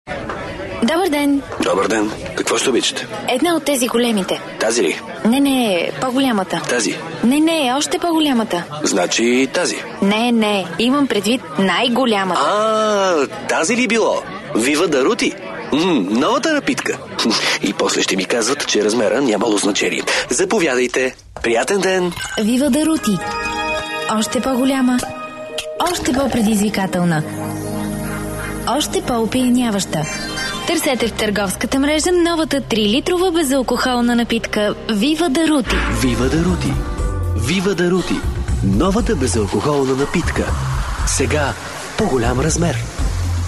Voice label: Bulgarian M Commercial; voice over